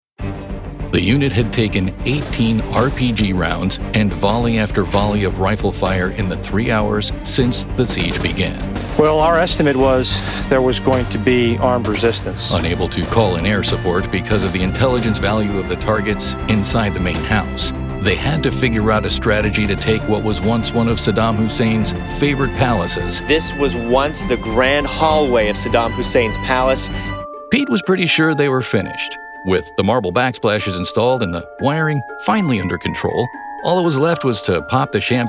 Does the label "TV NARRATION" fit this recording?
Yes